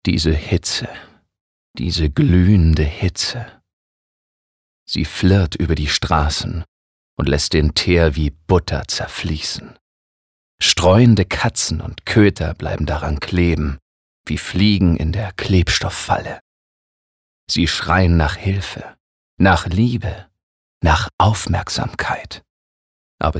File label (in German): Schauspiel, Sanft